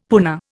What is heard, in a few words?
"puna", Indian; Dictionary